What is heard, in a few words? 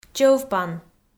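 "чӧвпан", Komi, female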